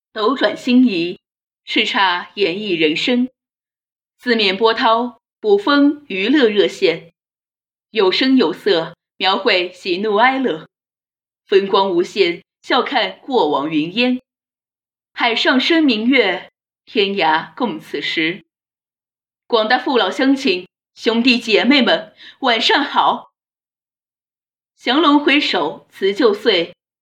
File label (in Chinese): 综艺开场白